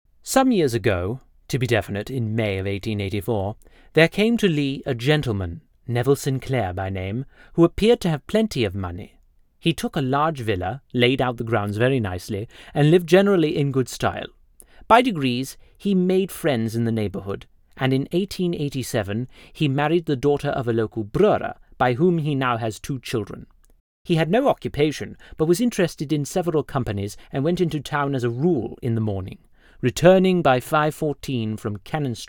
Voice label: Posh English